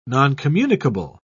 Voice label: "noncommunicable", en uk